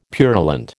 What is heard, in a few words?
"purulent", American